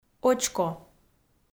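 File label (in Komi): "очко", Komi, female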